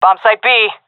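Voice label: loc b, female; vo; Counter Strike Model Pack; CS:GO; seal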